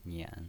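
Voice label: "nian", pinyin voice, Mandarin Chinese, third tone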